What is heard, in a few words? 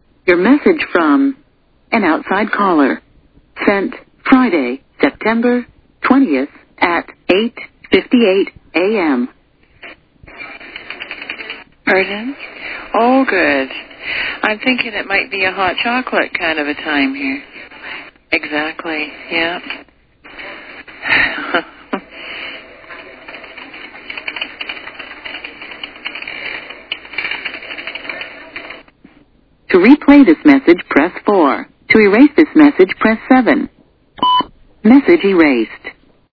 voicemail